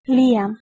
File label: "lien", Middle English